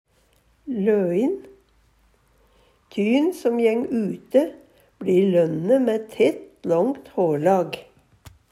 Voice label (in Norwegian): loin, Numedalsmål; Adjektiv